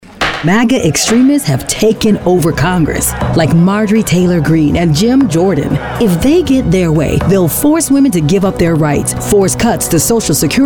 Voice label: pol, Political